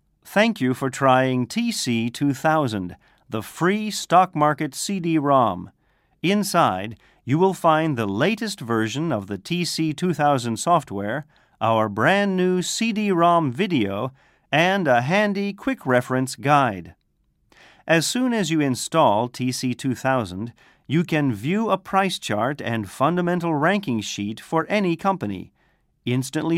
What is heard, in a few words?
usa man